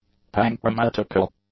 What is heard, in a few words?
"panchromatical", English; adjectives